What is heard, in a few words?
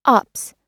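"opts", American English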